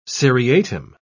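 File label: "seriatim", British English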